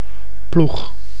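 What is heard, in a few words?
"ploeg", Dutch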